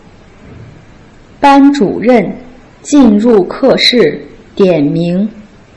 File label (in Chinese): ban zhu ren jin ru ke shi dian ming, Putonghua